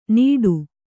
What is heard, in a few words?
"ನೀಡು", Kannada